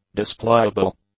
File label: "displayable", English